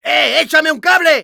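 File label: Demoman help me, Spanish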